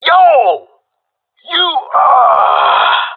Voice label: taking fire friendly, jungle male; vo; agents; Source Model Packs; Counter Strike Model Pack; CS2

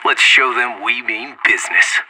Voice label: radio lock n load; anarchist

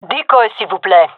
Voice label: request decoy, fem; vo

